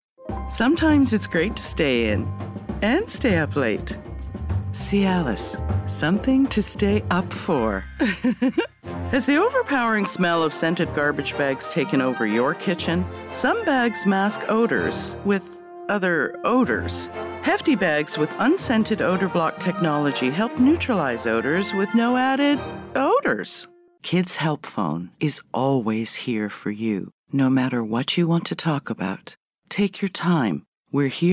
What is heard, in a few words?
Female, English, North American; Country Canada; Accents; Commercial Demo